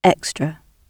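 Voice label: "extra", British English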